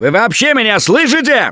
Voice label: lost call, russian; Gambler

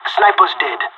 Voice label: sniper killed, pirate; Counter-Strike Global Offensive

